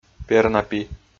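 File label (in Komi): "пернапи", Komi, male; audio dict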